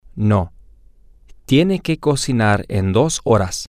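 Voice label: No he has to cook in 2 hours, Spanish, male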